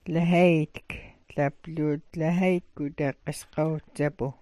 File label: "lahaytk", smalgyax